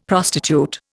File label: "prostitute", Indian; Dictionary